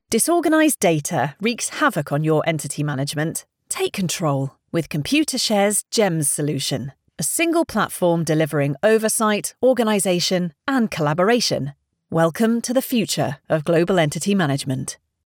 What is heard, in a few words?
Promo; Entity Everything